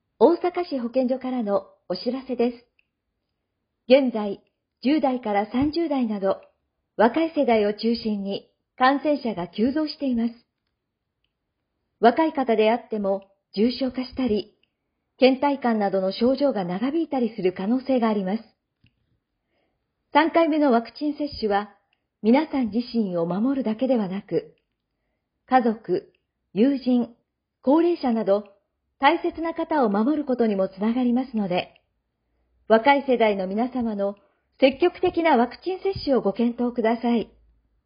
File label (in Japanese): アナウンス音源